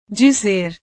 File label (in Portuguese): "dizer", portugues; decir